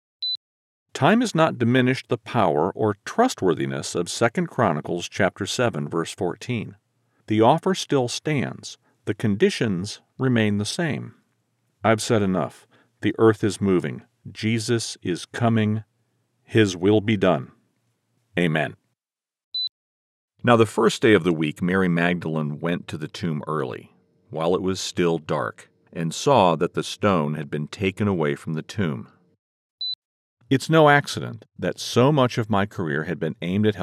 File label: Faith Based Audiobook Narration